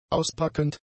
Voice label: "auspackend", German; adjectives